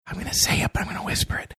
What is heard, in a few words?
quietly saying im gonna say it but im gonna whisper it